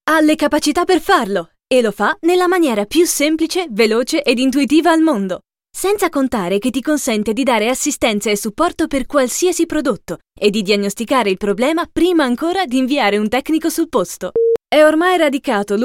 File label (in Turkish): Italyanca Kadin; seslendirme